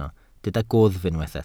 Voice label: "gwddf", Welsh